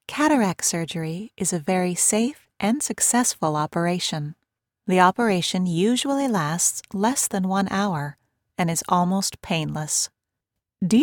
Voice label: E Learning